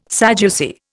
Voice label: "Sadducee", Indian